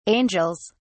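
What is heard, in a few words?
"angels", British English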